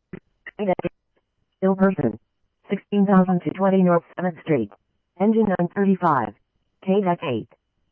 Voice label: Phoenix Fire PHX Alarm, scanner; RWC; H-Scottsdale

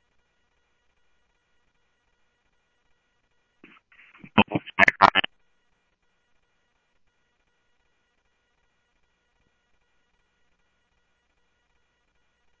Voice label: Police, scanner audio trunk

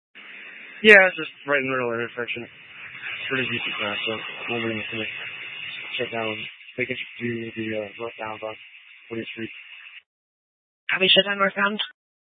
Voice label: Police Dispatch

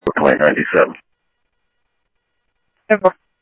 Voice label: Scottsdale Police, scanner audio

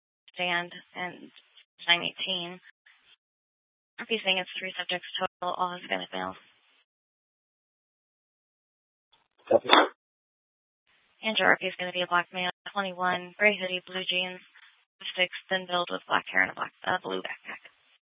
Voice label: Mesa PD Central Dispatch, Scanner

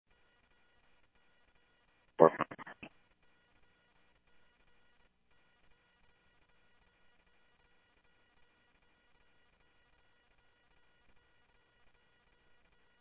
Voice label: Tempe Police, scanner audio

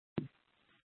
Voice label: Fire Dispatch; C- Chandler

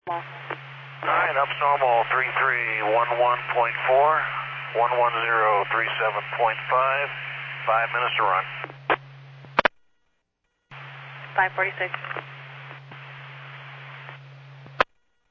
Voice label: Medevac, scanner; NativeAirAmb

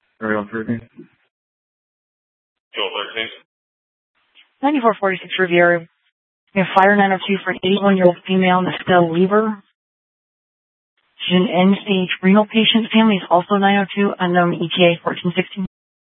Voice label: Dispatch; Scottsdale

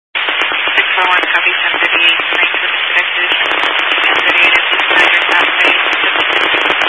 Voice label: Highway Patrol, scanner; DPS; Dist 06 Casa Grande

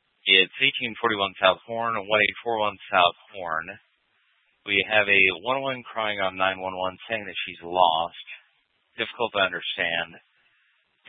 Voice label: Mesa PD Dispatch

